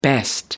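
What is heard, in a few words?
"best", British English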